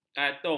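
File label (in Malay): "katung", Malay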